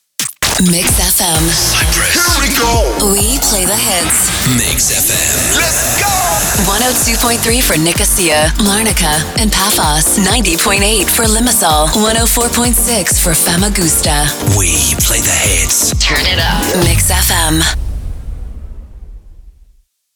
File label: english british others